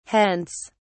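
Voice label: "hence!", British English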